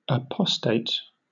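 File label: "apostate", British English; pronunciations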